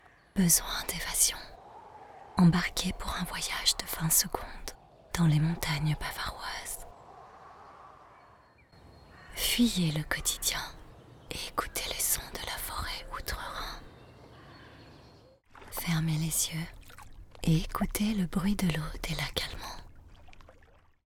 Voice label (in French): ASMR, voix off publicitaire commercial